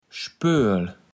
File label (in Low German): "Spööl", kaevels platt